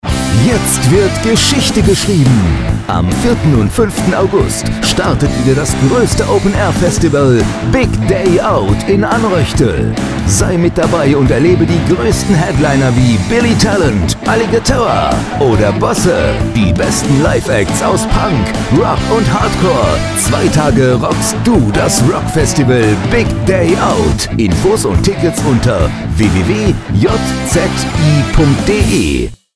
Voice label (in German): radio werbespot; gig day out